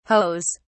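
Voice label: "hoes", British English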